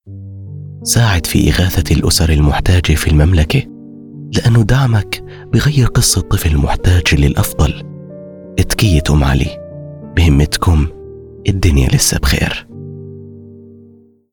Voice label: Commercial Warm Caring Jordanian Arabic; Tkiyet Um Ali

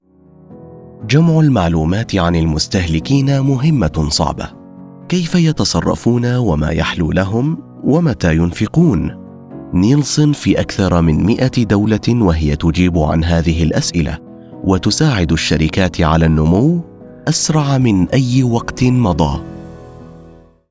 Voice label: Corporate Corporate Authentic Standard Arabic; Nielsen

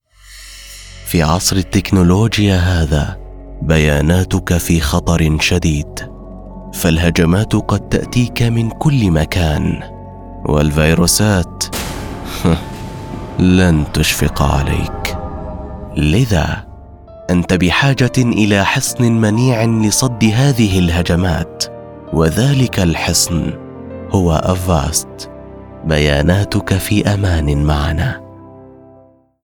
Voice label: Explainer Dangerous Deep Standard Arabic; Avast

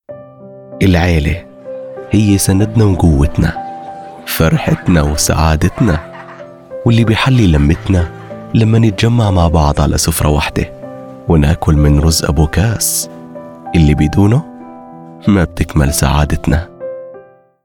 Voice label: Commercial Warm Dreamy Jordanian Arabic; Abu Kass

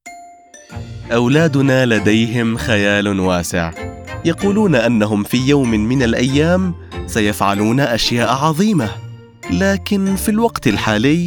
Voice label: Explainer Friendly Conversational Standard Arabic; Lego

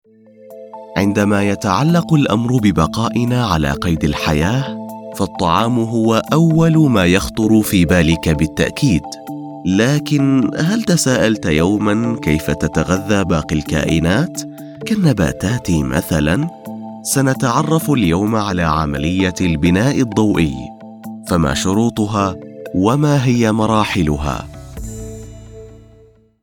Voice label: eLearning Educational Believable Standard Arabic; Photosynthesis

